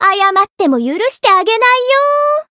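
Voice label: Voice variable chant, Japanese; bivette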